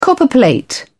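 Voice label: "copperplate", British English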